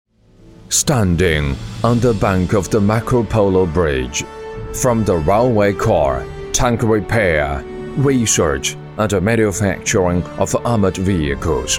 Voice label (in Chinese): 英男 自信稳健; 北车集团